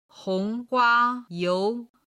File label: "hong hua you", Chinese